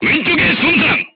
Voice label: voice message shot left, korean, crew tanks

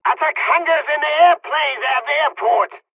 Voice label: dialogs polish; War Thunder

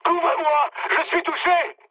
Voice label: aircraft damaged mood high, speech fr

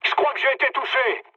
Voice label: aircraft damaged mood med, speech fr; War Thunder

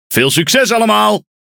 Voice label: NL Speech Quick Commands Good Luck; World Of Warships

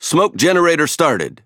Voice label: Speech Consumable Smoke Start, EN; World Of Warships

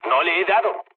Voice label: bomb failure, mood low, speech; War Thunder

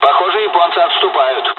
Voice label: dialogs russian; War Thunder

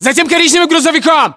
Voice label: order cover truck brown, ru

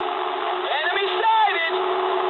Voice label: eng enemy detected mood med, speech eng

